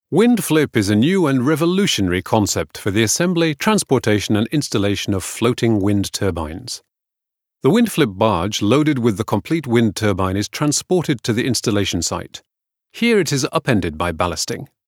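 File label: British corporate presentation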